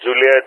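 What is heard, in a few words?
"Juliett", spoken; Ka-50; Alphabet; Juliett-begin; Speech; DCS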